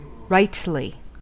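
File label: "rightly", US English; pronunciations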